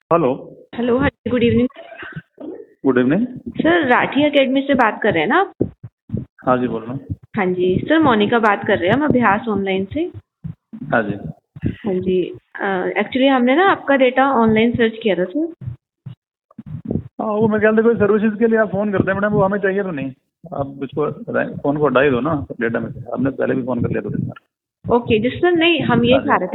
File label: call recording